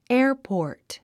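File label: "airport", English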